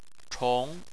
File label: "chong2", chinese